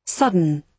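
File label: "sudden", British English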